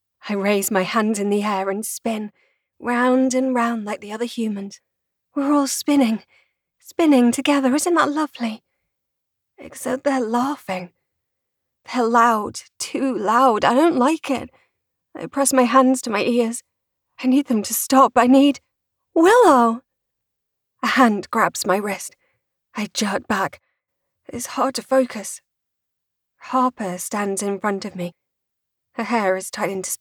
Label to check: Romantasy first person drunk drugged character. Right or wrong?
Right